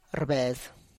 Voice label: "rrbes", stand HAB Person, tlacochahuaya